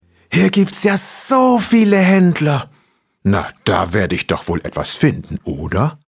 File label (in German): hoerspiel